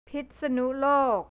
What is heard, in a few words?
"pitsanulok", thai; พิษณุโลก; north; แผนที่ประเทศไทย